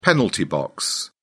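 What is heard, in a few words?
"penalty box", English, British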